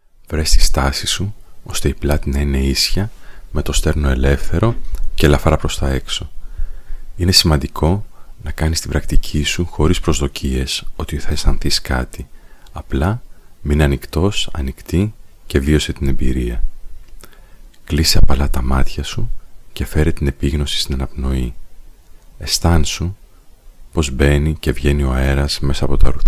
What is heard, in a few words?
Guided Forest Sounds Meditation